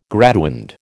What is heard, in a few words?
"graduand", American